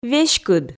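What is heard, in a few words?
"веськыд", Komi, female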